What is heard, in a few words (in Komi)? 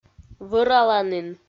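"вӧраланін", Komi-Zyrian, female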